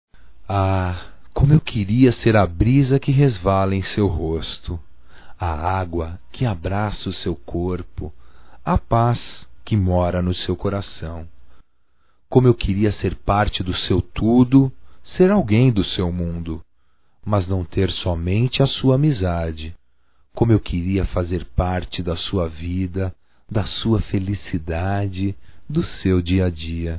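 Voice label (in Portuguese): COELINHO DA PASCOA, Só Voz, masculino; Telemensagens; Telemensagens 2017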